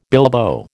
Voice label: "bilbo", American

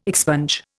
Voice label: "expunge", Indian

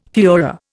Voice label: "fuehrer", Indian

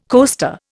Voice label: "coaster", Indian

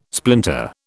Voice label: "splinter", British

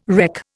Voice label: "wreck", Indian

